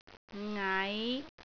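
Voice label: "ngai5", Cantonese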